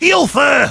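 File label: help me, German; Heavy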